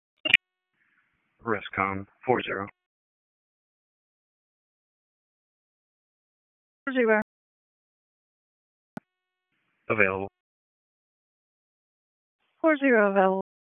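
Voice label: EMS Dispatch, p25; wake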